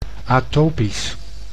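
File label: "atopisch", Dutch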